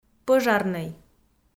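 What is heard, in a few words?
"пӧжарнӧй", Komi, female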